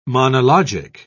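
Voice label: "monologic", British English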